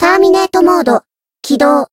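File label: Voice variable chant, Japanese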